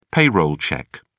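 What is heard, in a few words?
"payroll check", British English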